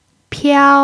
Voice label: "piao1", Chinese